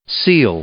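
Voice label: "seal", English, male; verb; i-ɪ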